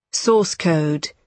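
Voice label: "source code", British English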